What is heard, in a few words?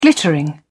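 "glittering", British English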